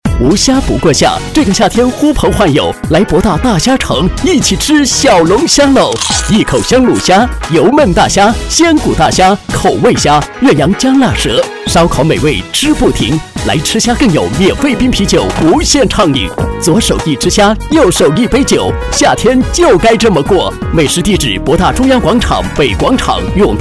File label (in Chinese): 男 广告 活力 餐饮 时尚阳光